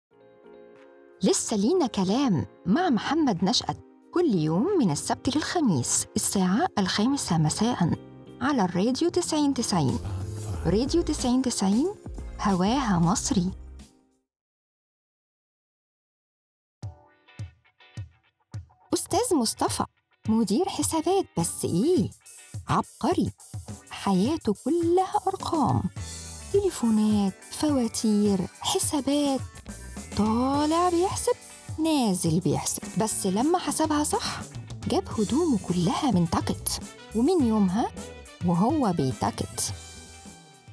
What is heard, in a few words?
arabic